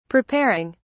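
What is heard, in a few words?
"preparing", British English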